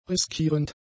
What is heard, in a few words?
"riskierend", German; adjectives